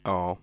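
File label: ingressive egressive ja male; JIPA 2008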